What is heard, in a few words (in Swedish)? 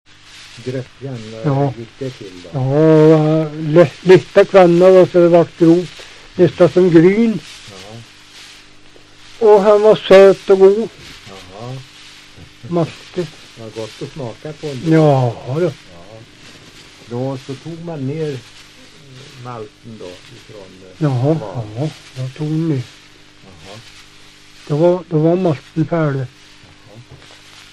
Hede, dialekter; Folkminnen